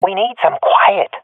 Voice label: request quiet, swat fem; vo; Counter Strike Model Pack; CS:GO